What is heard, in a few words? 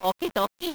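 "okeedokee", male; GBA; MLSS; luigi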